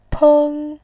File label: "peng1", voice